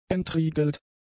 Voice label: "entriegelt", German; adjectives